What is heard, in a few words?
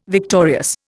"victorious", Indian